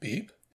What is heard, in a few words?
Voices intrigued; The Looker